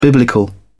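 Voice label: "biblical", British English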